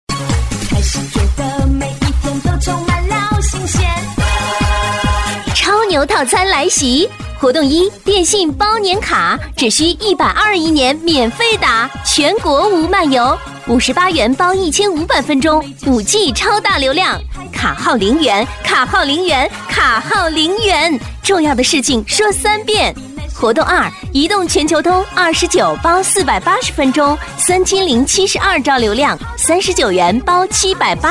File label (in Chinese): 女 联通超牛卡（激情阳光活力）